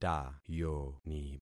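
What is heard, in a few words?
"tayoni", concatenated syllables; Affixing experiment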